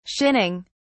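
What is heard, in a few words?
"shinning", British English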